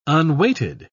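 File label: "unweighted", English, British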